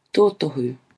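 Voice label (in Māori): "totohu", Māori word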